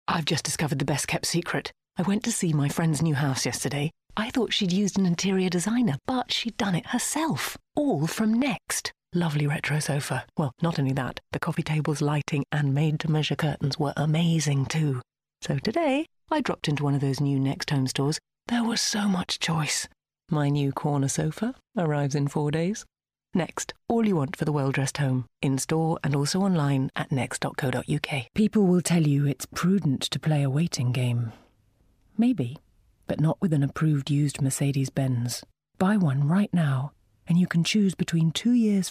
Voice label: commercial reel